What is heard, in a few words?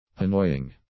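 "annoying", English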